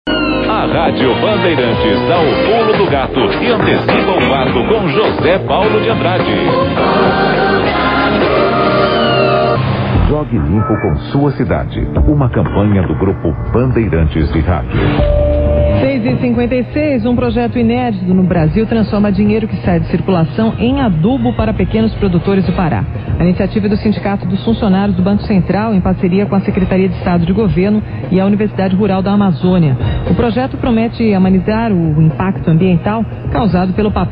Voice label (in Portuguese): radio; radio Bandeirantes; Pulo do Gato